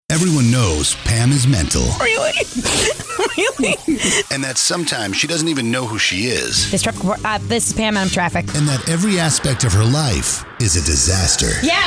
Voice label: Promo; Pams Peeps